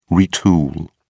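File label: "retool", British English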